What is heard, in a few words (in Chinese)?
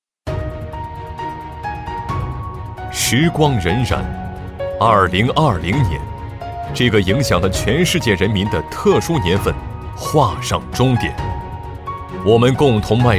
工程公司自信大气专题